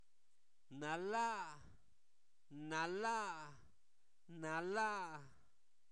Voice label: calor, Zapoteco, santo domingo albarradas; INALI; sustantivos

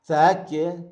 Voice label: se quema, Zapoteco, Magdalena Ocotlan; Verbos; INALI; Haspelmath

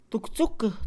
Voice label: Mixe, Mujer; picar; INALI

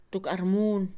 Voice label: Mixe, Mujer; un almud; Cantidad; INALI

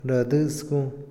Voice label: soldado, Otomí, hombre; INALI

